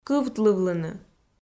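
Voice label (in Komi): "кывтлывлыны", Komi, female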